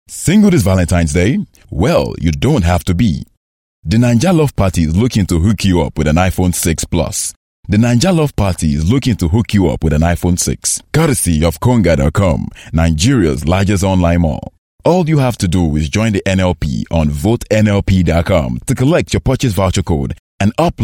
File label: English African, African Male; Naija Love